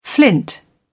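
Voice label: "flint", British English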